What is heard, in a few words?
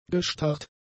"gestarrt", German; adjectives